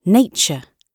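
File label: "nature", British English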